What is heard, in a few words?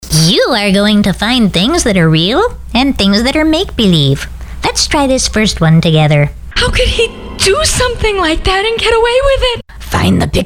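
Character, voiceovers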